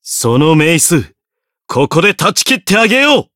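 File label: Voice limitbreak Japanese; zeviro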